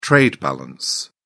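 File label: "trade balance", British English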